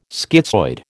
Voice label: "schizoid", American